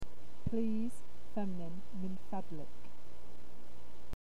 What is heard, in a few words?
please (fem)